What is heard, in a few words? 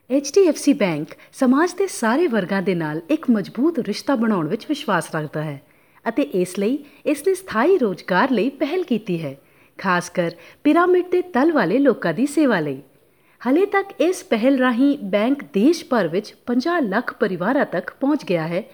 punjabi commercial